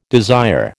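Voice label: "desire", American English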